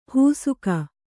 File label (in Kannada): "ಹೂಸುಕ", Kannada